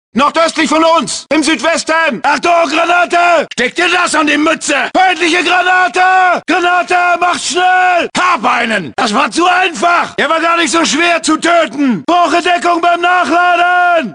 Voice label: Wehrmacht Voices